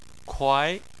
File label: "kuai2", Chinese